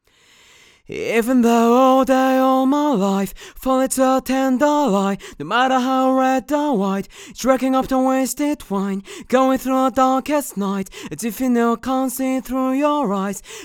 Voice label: dry vo; enhancer vintage 73; noshigesound